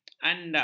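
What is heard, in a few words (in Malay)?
"andak", Malay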